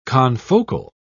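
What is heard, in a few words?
"confocal", British English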